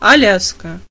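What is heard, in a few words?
alaska, Spanish; gcompris-data; geography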